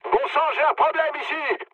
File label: aircraft damaged mood med, speech fr; War Thunder